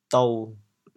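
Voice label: "dou7", Teochew, chaoyang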